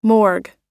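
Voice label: "morgue", American English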